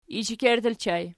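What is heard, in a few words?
"ishekerttu" ergative plural, Archi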